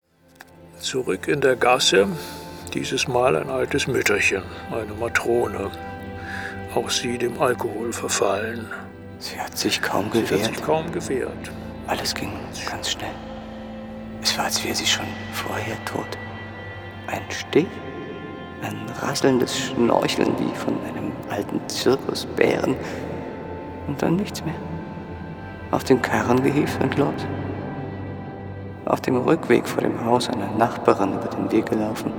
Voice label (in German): hörspiel; freud